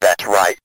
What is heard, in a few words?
"that's right", robot; Voice